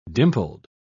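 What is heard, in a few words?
"dimpled", British English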